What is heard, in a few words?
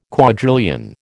"quadrillion", American